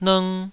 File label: "lng2", Taiwanese syllable